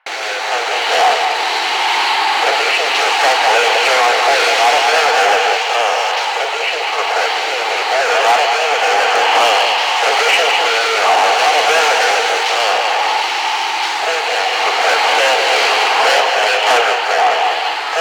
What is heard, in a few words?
Ambient Propaganda Loop; Ambience; Props; Warframe; NineteenNinetyNine